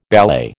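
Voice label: "ballet", American English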